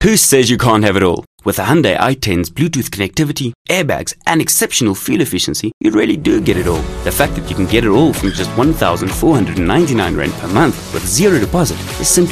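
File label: Afrikaans Accented English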